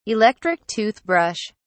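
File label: "electric toothbrush", British English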